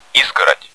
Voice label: fence, bot; cstrike